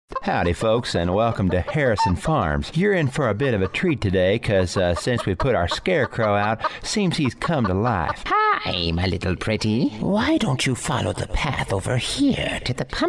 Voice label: Characters Male